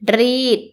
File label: iron, Thai